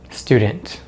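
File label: "student", American English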